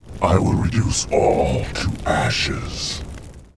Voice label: Pissed; war3; HeroFirelord